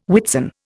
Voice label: "Whitsun", Indian